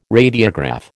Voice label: "radiograph", American